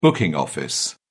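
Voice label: "booking office", British English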